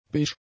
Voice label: "beige", German; adjectives